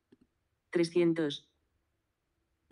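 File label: "trescientos", Spanish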